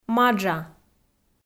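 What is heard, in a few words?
"Маджа", Komi, female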